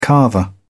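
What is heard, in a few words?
"carver", English, British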